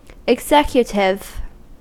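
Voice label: "executive", US English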